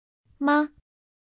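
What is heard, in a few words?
"吗", Chinese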